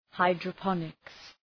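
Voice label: "hydroponics", English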